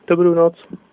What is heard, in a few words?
good night, slovak